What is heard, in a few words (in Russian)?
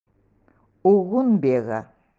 октябрь, эвенкийский